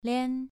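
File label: "lian", Mandarin Chinese syllable, second tone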